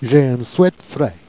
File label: "j'ai eune suée d'fraid", Jèrriais